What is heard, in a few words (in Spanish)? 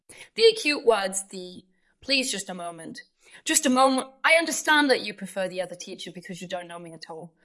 Voice Acting es